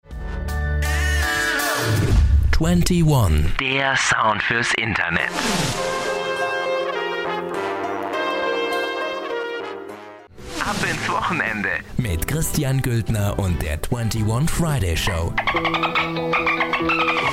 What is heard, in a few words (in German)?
Sprechprobe Stationvoice